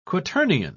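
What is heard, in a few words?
"quaternion", British English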